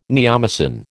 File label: "neomycin", American